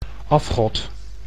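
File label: "afgod", Dutch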